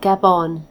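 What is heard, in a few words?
"gabon", British English; gcompris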